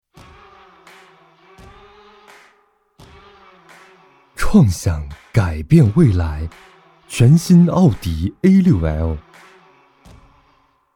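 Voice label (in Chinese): 高端大气广告; 高端大气奥迪广告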